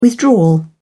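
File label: "withdrawal", English, British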